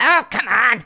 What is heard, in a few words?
"oh come on", male; Voice